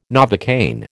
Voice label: "Novocaine", American

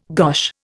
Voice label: "gosh", Indian

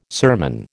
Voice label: "sermon", American

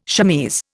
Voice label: "chemise", Indian

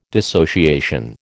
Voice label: "dissociation", American

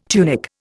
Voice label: "tunic", Indian

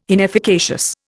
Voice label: "inefficacious", Indian

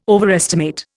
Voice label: "overestimate", Indian

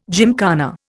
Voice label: "gymkhana", Indian

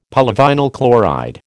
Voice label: "polyvinyl chloride", American

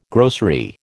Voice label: "grocery", American

